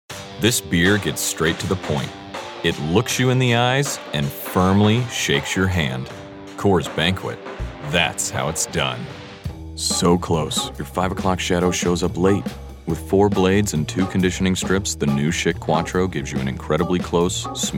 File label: Commercial